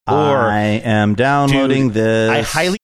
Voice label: I am downloading this sing songy

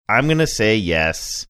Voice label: saying im gonna say yes deflated

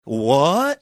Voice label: drawn out "what"

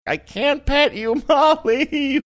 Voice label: saying i cant pet you molly funny

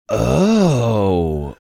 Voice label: drawn out oh

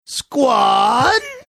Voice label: saying squad funny

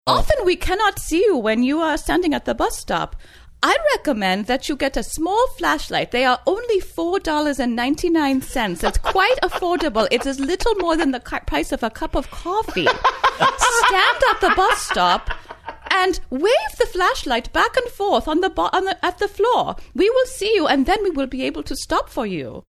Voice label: speaking as bus driver with scandinavian accent

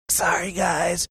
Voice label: whispering; sorry guys

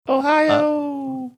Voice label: ohio in a sing songy manner

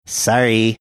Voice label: saying sorry funny manner

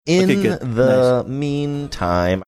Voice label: saying in the meantime singsongy

